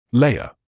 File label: "layer", English